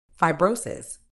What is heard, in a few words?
"fibrosis", medical English